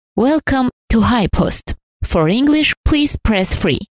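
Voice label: Armenian, female; voice over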